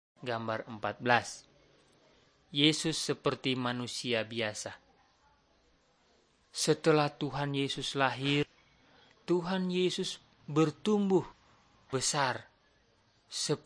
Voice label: malay manado; good news

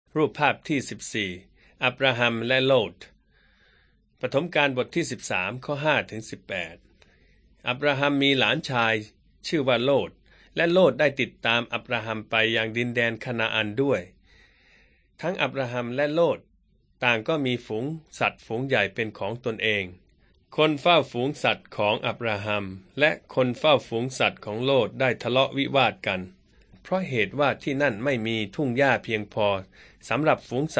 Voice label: beginning with god thai central

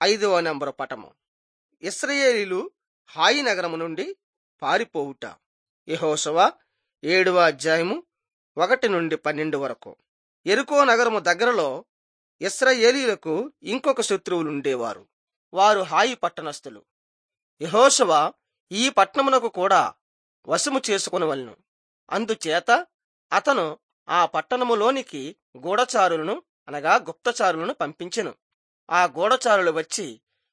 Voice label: telugu bangladesh

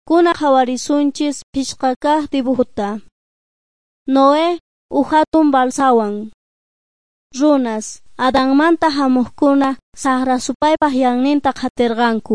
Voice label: beginning with god quechua s bolivian cochabamba

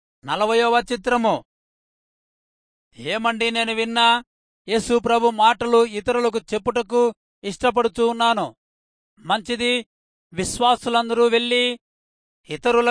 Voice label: telugu rayalseema; good news

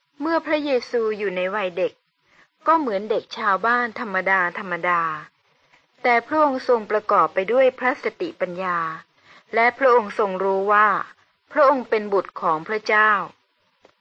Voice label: good news short thai central

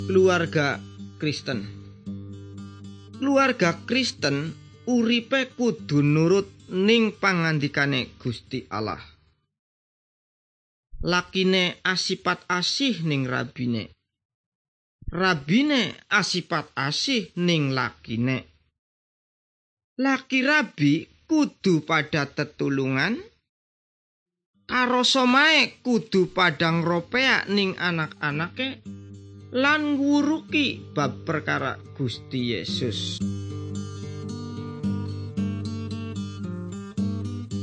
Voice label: good news jawa indramayu, javanese bible

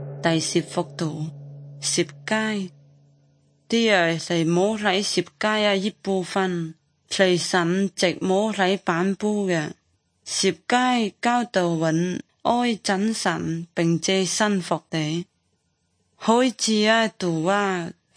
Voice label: good news zhuang guigang guiping

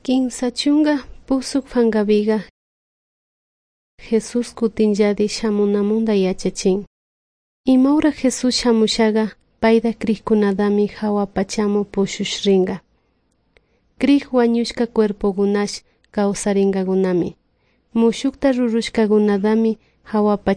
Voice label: good news quichua de salasaca

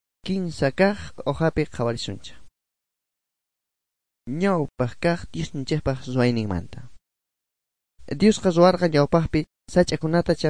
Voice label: good news quechua bolivian sucre; bible

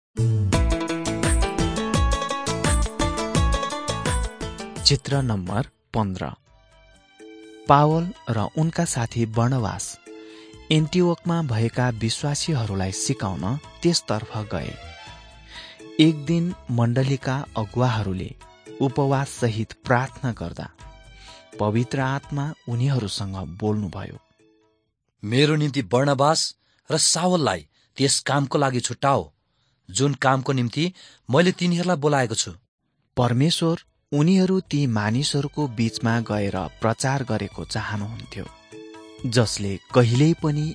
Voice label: acts of the holy spirit nepali

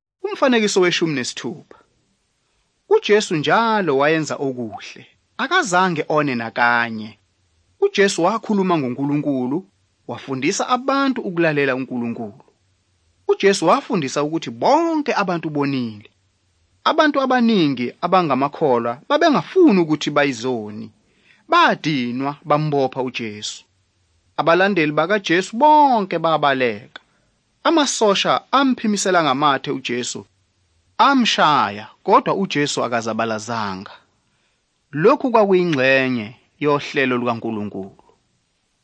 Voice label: zulu bible; good news

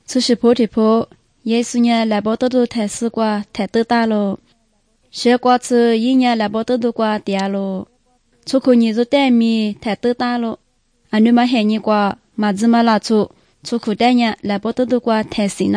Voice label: good news lisu southern, drama

